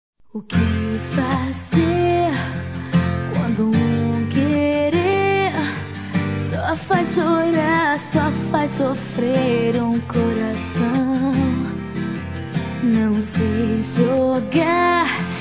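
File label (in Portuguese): Romântica você sumiu, Masculino; Telemensagens; Saudades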